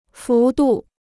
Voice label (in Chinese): "幅度", Chinese